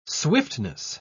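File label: "swiftness", British English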